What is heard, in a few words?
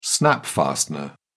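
"snap-fastener", British English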